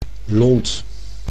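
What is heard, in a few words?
"lont", Dutch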